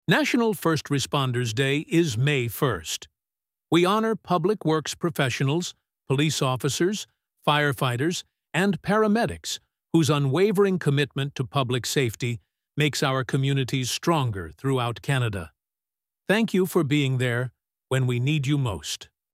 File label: Canadian PSA Male; 15-second PSA, male voice; Canada’s National First Responders Day is May 1